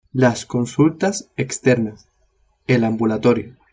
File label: "ambulatorio", spanish